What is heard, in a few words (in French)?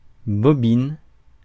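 "bobine", French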